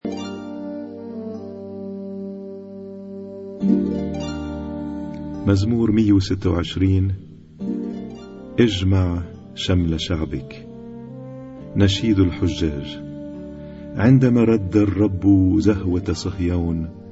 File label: Arabic Psalm Zaboor